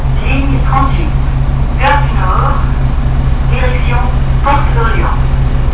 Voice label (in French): annonce